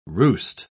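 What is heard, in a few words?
"roost", English word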